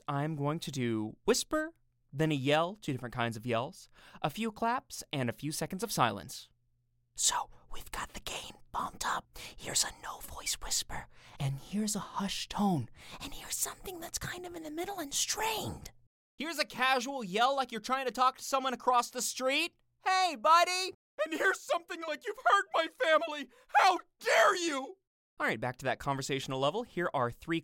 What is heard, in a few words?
Raw Studio Sample